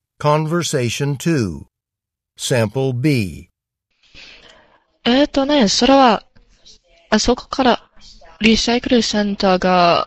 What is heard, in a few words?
japanese convo